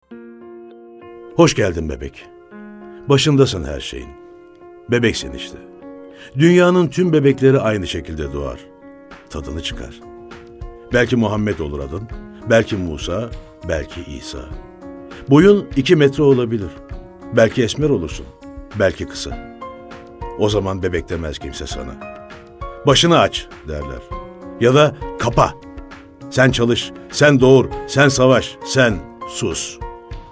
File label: turkish m narration